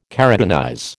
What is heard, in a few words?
"keratinize", American